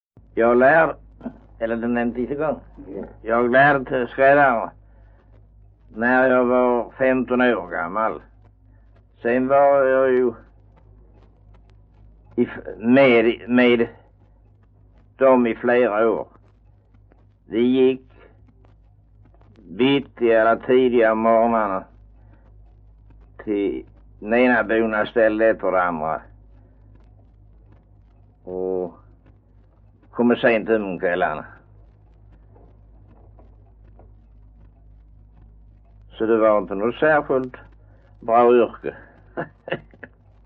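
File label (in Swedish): Östra Ingelstad Skåne, dialekter; Folkminnen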